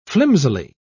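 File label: "flimsily", British English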